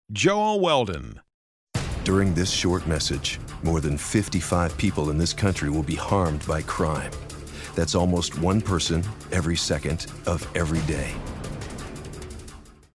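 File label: urgent, voice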